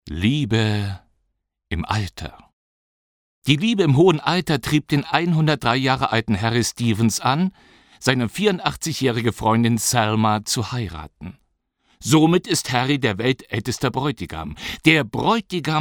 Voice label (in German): Hörbuch; LiebeImAlter